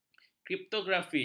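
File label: "kriptografi", Malay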